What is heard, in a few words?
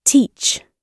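"teach", British English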